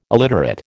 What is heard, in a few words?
"illiterate", American